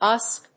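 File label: "USB", English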